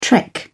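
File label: "trek", British English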